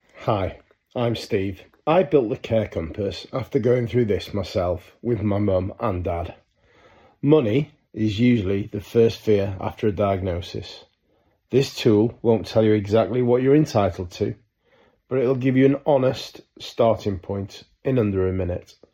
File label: voiceover